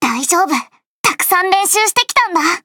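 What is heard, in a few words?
start, Japanese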